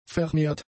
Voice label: "vermehrt", German; adjectives

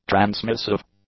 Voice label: "transmissive", English; adjectives

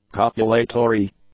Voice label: "copulatory", English; adjectives

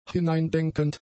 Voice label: "hineindenkend", German; adjectives

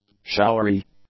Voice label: "showery", English; adjectives

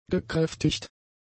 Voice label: "gekräftigt", German; adjectives

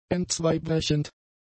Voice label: "entzweibrechend", German; adjectives

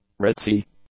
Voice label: "ritzy", English; adjectives

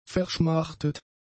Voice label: "verschmachtet", German; adjectives

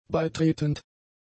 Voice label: "beitretend", German; adjectives

